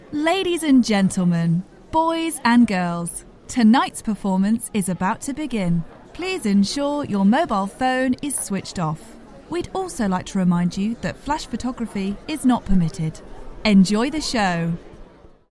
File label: english british